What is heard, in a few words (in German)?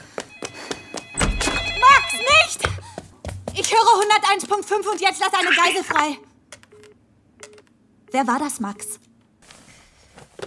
hoerspiel thriller; theresa sonya szenenauszug; casting stimmprobe